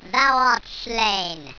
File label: thou art slain, squeaky; tactics; attack